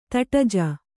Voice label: "ತಟಜ", kannada